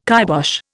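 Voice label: "kybosh", Indian English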